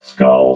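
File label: "skull", robot voice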